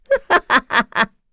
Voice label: Vox Happy kr; Bernheim; kingsraid